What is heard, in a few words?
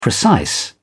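"precise", British English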